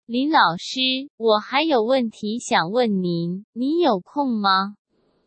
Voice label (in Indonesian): Contoh memanggil atau bertanya yang baik ke pada guru dalam bahasa mandarin